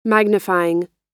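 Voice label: "magnifying", US English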